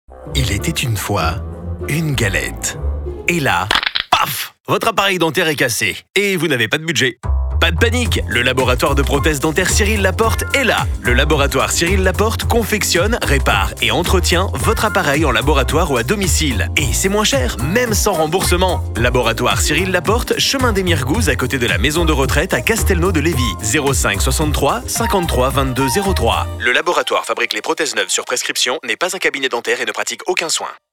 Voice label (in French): spot radio